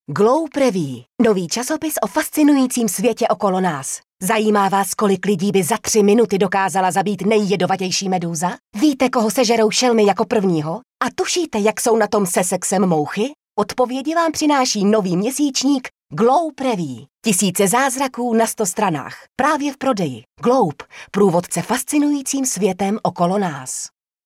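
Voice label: female Czech commercial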